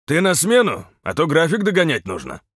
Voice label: truck Driver greeting